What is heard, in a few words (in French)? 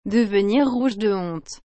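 "devenir rouge de honte", French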